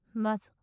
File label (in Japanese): "マゾ"・近・左; マゾオスのあなたに敗北射精の快楽を叩き込む音声